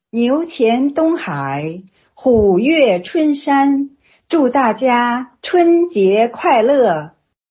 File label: Audio phrase with Happy Chinese New Year